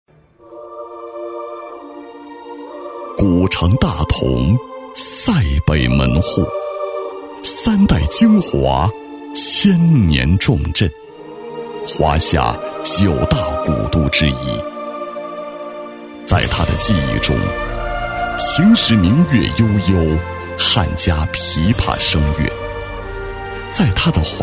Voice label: 男 商务宣传片; 男123-厚重大气; 成熟厚重

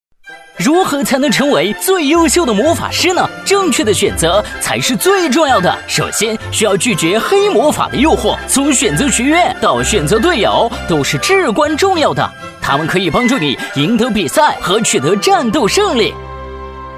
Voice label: 男, 游戏广告, 轻松愉快, 声音百变 轻松活泼; 魔法师的选择

